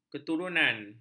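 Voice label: "keturunan", Malay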